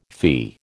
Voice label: "fee", American